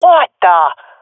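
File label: taking fire friendly, swat female; vo; Counter Strike Model Pack; CS:GO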